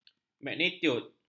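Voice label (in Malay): "magnitud", Malay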